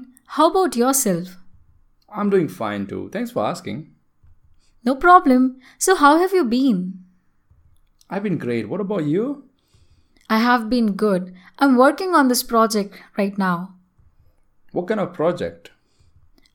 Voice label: Conversation Audio